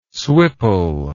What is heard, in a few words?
"swipple", English word